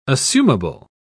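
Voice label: "assumable", British English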